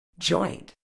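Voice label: "joint", British English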